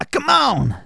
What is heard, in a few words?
"come on then", Soul Man